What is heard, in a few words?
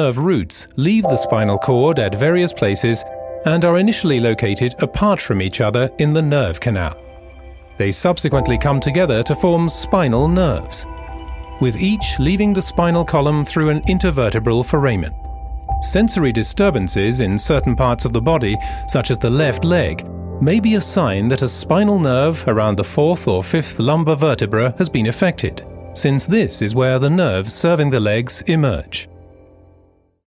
UK Medical Info Film